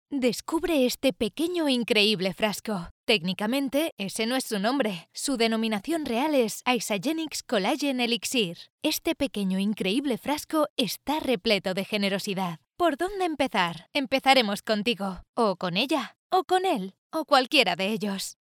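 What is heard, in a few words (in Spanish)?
corporativo España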